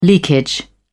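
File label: "leakage", English, British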